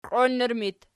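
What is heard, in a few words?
"qioinnur" locative, Archi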